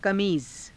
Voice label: "kamiiza", Hindi

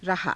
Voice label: "rahaa", Hindi; रहा; ･･･しつつある/しつつあった/しつつあるだろう

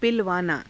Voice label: "pilavaanaa", Hindi

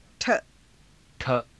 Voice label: "rtha", hindi

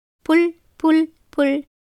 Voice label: Tamil

